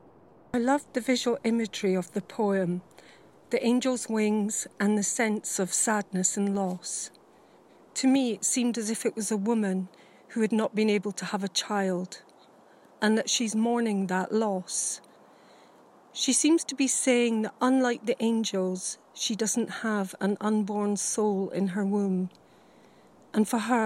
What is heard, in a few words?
audio voiceover